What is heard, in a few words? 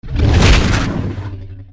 Male Large